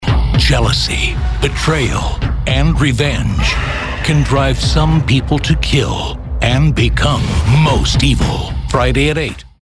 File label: tough and gritty